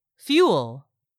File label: "fuel", English; 3000 words